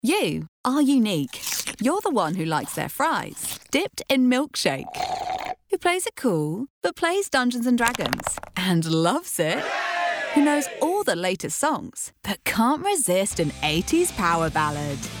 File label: Mini Cooper (Quirky Upbeat Likable)